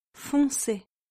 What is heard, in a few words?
"foncé", French